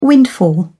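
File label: "windfall", British English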